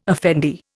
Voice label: "effendi", Indian

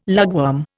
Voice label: "lugworm", Indian

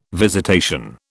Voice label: "visitation", British

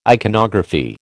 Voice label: "iconography", American

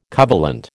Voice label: "covalent", American

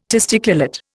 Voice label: "testiculate", Indian

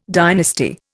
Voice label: "dynasty", Indian

